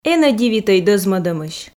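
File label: энӧ дивитӧй дӧзмӧдӧмысь, Komi, female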